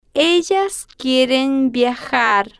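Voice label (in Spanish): ellas quieren viajar, Spanish, female, slow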